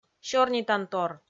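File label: "сёрнитантор" Komi, female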